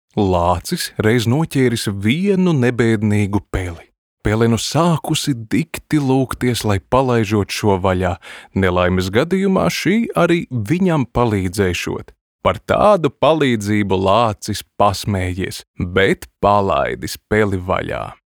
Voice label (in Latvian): Pasaka LV